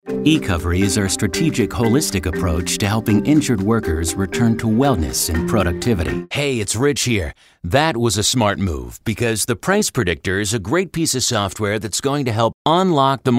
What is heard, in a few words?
eLearning Tutorial Biz